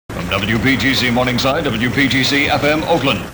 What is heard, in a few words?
sweeper legal id